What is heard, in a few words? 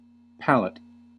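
"palette", American English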